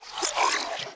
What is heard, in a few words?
Wraith Whispers; Playable Tutorial Level